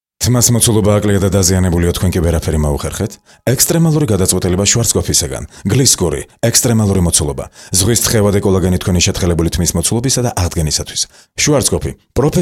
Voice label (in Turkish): Gurcuce Erkek; seslendirme